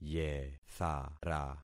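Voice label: "yethara", concatenated syllables; Affixing experiment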